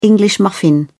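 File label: "english muffin", British English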